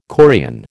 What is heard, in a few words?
"chorion", American